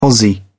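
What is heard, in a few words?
"aussie", British English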